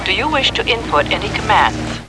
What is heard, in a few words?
COMPUTER-Do you wish to input any commands; startrek